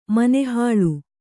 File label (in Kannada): "ಮನೆಹಾಳು", Kannada